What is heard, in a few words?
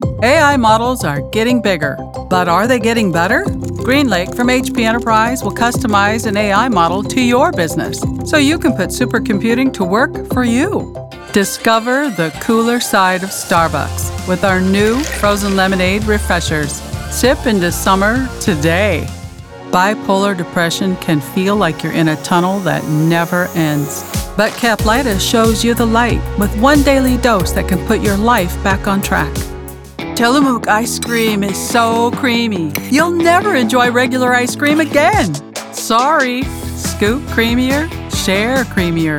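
Female Voice Over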